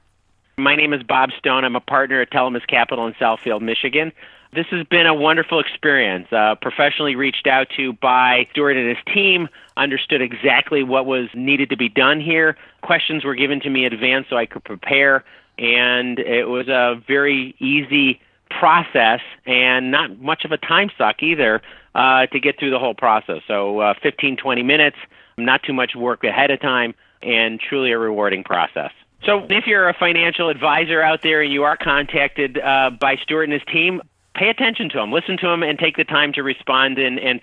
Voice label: Testimonial; Impact Makers Radio